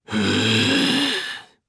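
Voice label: Vox Casting, Japanese; kingsraid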